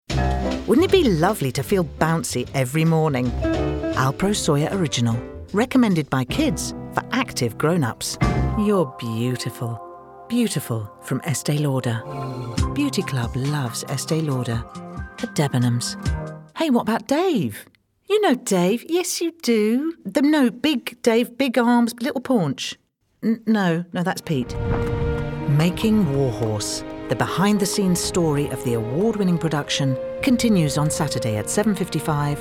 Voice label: Commercial Montage

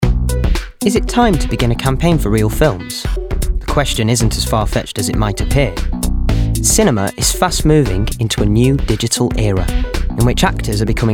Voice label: Narrative

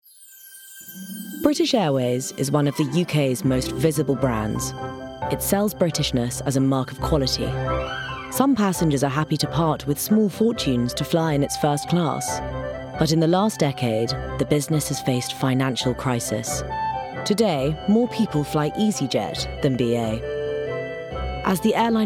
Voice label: Documentary

